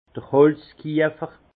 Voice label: "holzkiefer", alsace; tonnelier à bois

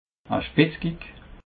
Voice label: "spetz kick", alsace

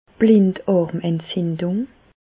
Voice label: "blindarmentzindung", Bas Rhin; medecine; appendicite

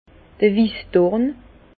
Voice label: "wiss dorn", Bas Rhin; vin; aubépine

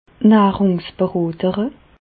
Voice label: "nahrungsberotere", Bas Rhin; medecine; diététicienne

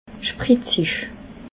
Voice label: "sprezig", alsace

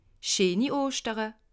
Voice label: "oschtere", alsace

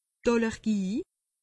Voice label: "allergie", alsace; medecine

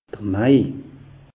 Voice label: "mai", alsace; meteo

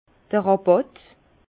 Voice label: "rabatt", Bas Rhin; vin; remise

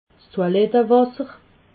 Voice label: "toiletwasser", alsace

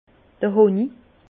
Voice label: "honi", Bas Rhin; vin; miel

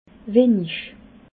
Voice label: "wenig", alsace

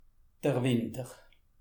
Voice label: "winter", alsace

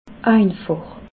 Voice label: "einfach", alsace

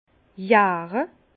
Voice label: "jare", Bas Rhin; vin; fermenter

